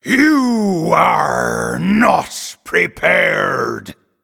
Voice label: Male Night Elf Emote Threaten